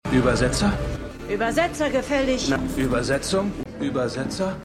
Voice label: Uebersetzer M1 F M2 M3, Stimmproben; Tetris

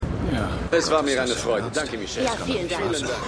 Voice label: Vorstandsgemurmel; WeCrashed

